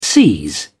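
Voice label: "seize", British English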